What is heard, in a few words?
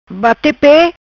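"Bate Pe", patua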